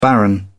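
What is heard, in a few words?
"baron", British English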